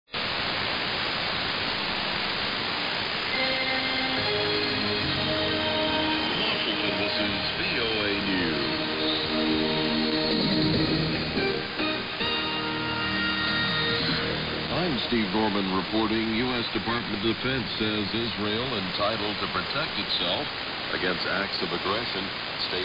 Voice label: 03h00m 6.080MHz English; Sao Tome; VOA